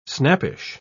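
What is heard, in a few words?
"snappish", English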